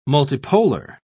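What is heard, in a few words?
"multipolar", British English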